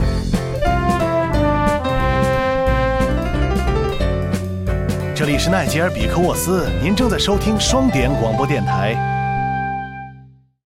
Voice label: Mandarin Sting; 奈杰尔（双点校园）; 开场白

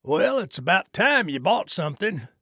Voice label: trader male neutral sale accepted

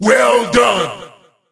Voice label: 8bit kill

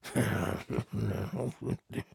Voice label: trader male neutral greeting

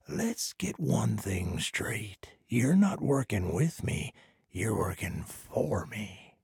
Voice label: trader male dislike quest accepted

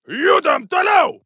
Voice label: neutral

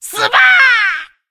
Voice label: attack, zh

